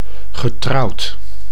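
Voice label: "getrouwd", Dutch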